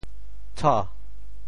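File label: "tsho2", Teochew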